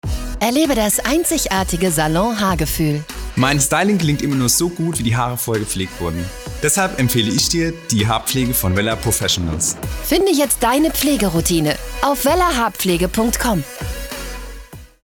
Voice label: werbung deutsch; wella

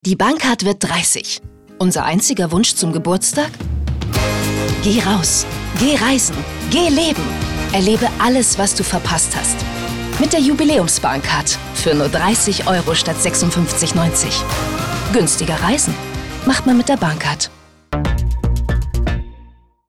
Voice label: spot jung; bahncard

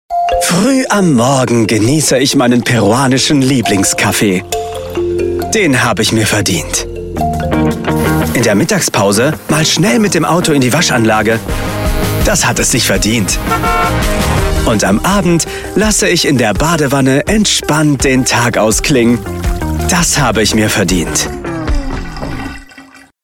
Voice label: werbung wasser

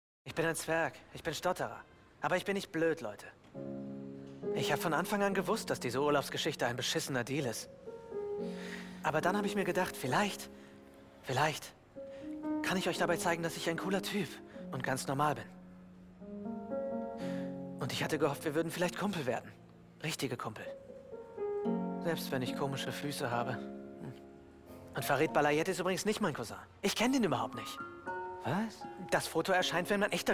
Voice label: synchron

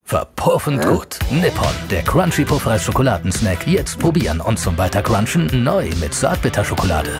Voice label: Werbung; nippon; Muttersprache Deutsch

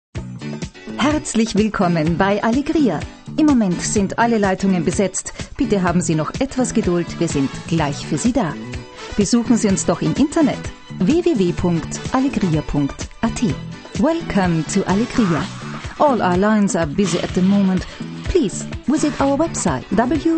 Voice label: telefon

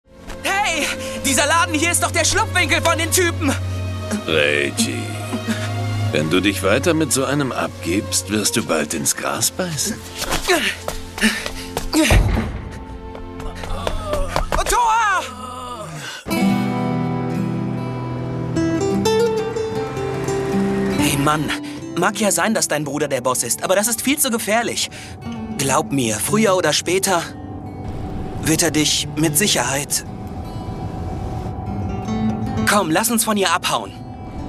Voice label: synchron; karas reiji

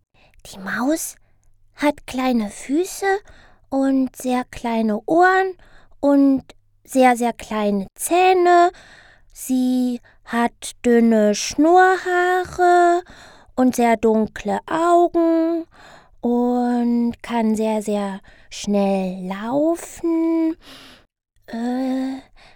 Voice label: kinderstimme

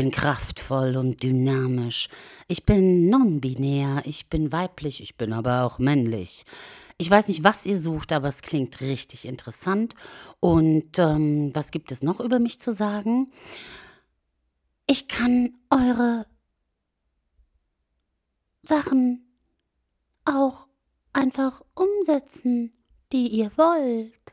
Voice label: Gequatsche Stimme; Muttersprache Deutsch, Italienisch; Sprecher in Bonn

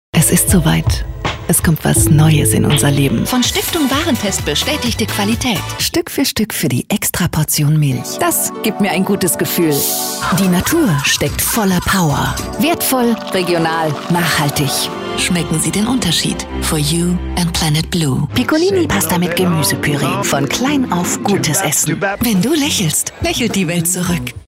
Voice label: werbung

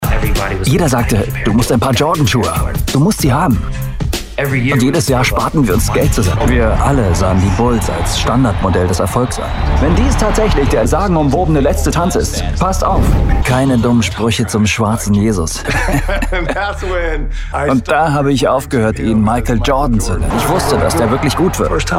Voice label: sprecherdemo overvoice doku; Sprecher in Leipzig; Muttersprache Deutsch; netflix-doku; the last dance

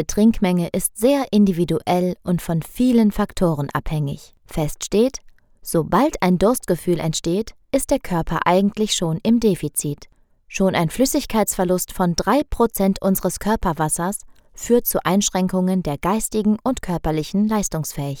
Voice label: erklarfilm wasser

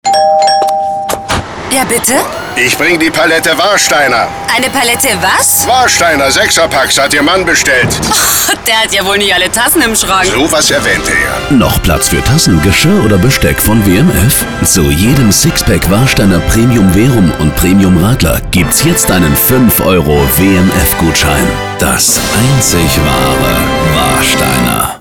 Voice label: werbung funk; warsteiner; sprachlabor

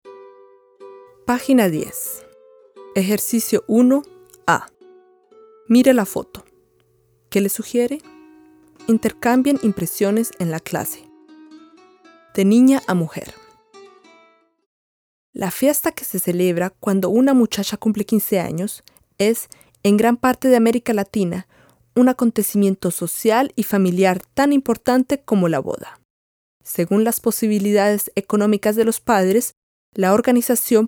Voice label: spanisch lehrmittel

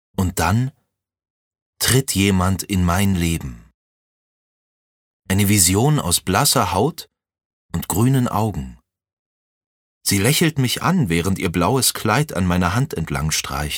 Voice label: hoerspiel romantisch

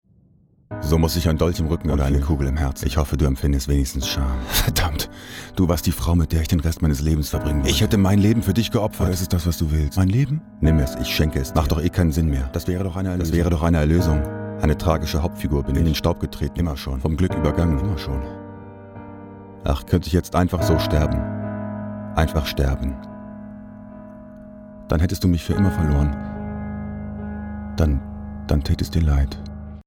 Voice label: offstimme film

